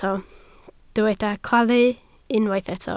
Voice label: "claddu", Welsh